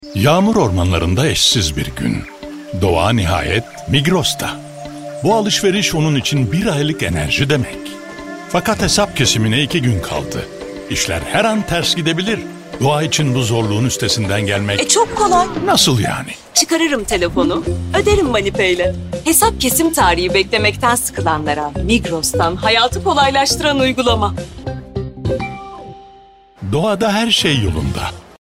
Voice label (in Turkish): reklam ilgi çekici otoriter tiyatral karizmatik; migros